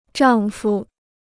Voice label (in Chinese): "丈夫", Chinese